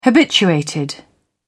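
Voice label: "habituated", British English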